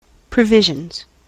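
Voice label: "provisions", US English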